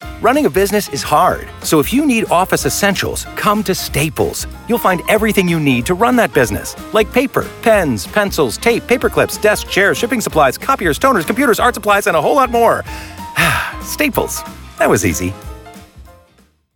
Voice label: commercial; staples